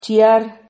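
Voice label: "chiar", Spanish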